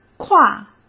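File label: "挎", Mandarin Chinese; hsk; chinese flashcards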